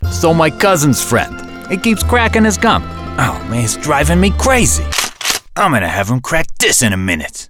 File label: Animation Italian Mafioso